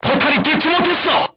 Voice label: voice message armor not pierce, korean, crew tanks